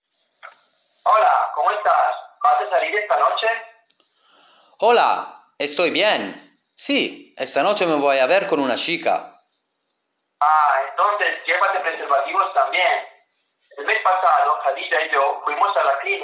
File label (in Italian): Spagnolo uomini